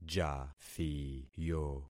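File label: "jathiyo", concatenated syllables; Affixing experiment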